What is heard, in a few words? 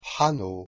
"hano", Proto-Germanic